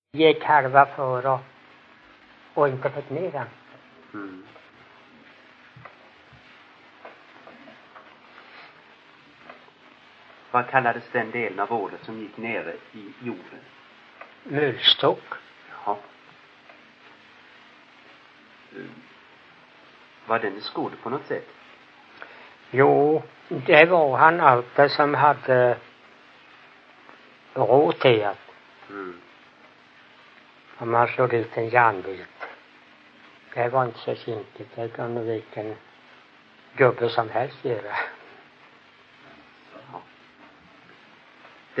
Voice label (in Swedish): Ingatorp SMÅL, dialekter; Folkminnen